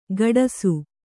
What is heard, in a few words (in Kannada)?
"ಕಡಾಸು", Kannada